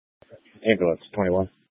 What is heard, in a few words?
Fire Department Dispatch, scanner